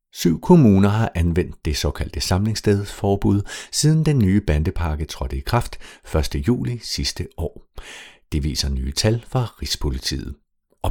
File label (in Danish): Nyhedsoplæsning